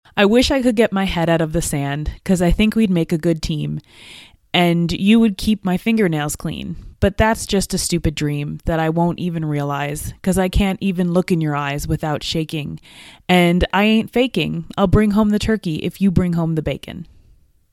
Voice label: ATR 2100 USB close distance, microphones